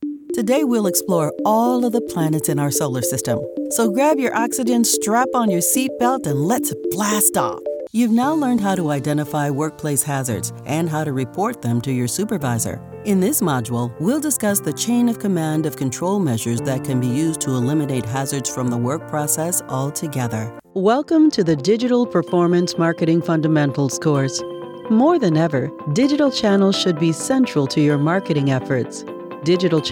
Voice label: eLearning

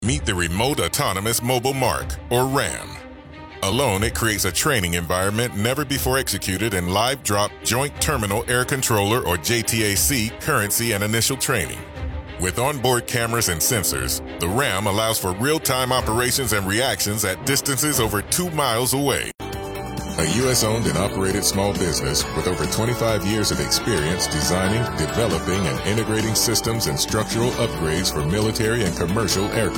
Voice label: Corporate

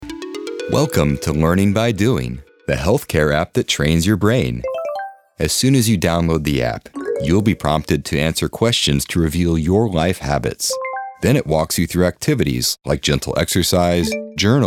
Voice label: App Explainer; Learning By Doing

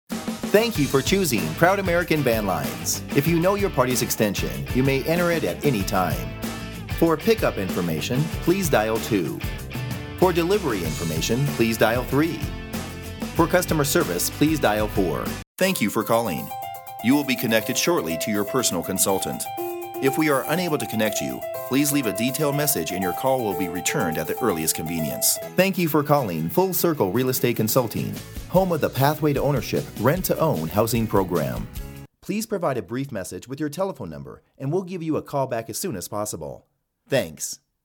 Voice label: TELEPHONY, Voice Over

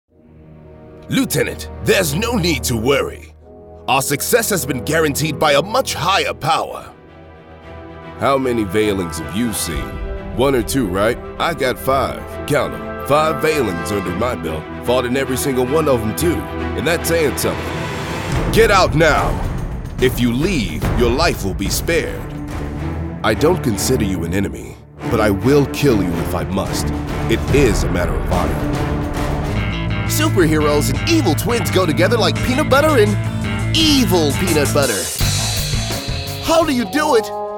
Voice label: Character

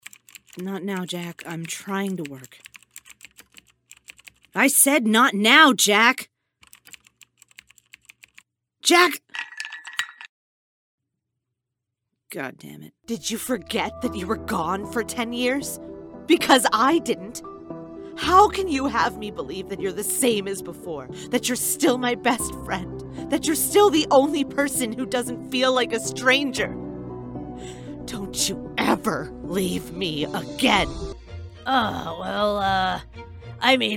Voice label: Animation

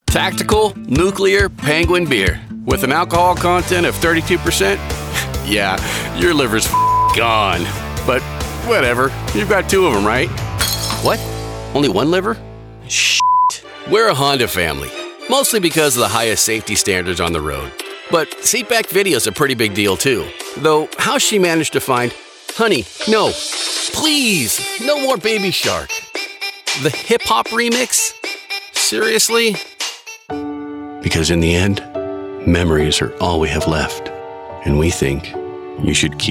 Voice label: Commercial, Voice Over

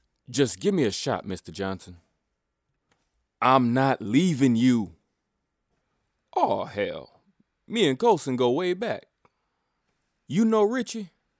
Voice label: Black Male

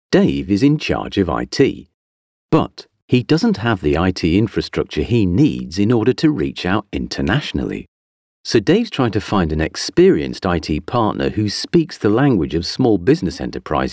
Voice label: Promo Voice

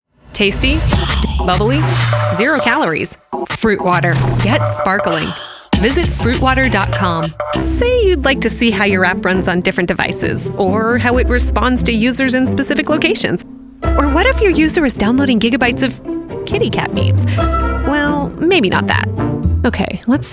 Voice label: Commercial

